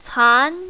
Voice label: "can2", mandarin, female

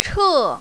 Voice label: "che4", mandarin, female

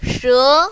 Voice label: "she2", mandarin, female

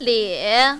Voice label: "lie2", mandarin, female

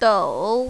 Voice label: "dou3", mandarin, female

